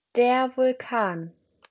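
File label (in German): "Vulkan", Deutsch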